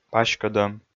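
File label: "паськӧдӧм", Komi, male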